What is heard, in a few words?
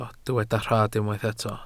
"rhad", Welsh